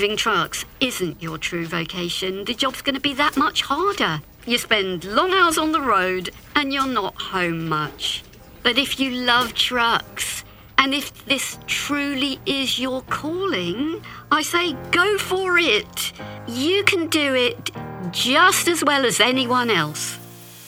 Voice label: Neutral Standard UK English podcast female trucker bright dynamic enthus; Michelin; enthusiastic